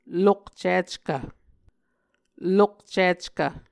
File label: "lokcickv", Muscogee